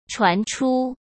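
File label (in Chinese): "传出", Mandarin Chinese; hsk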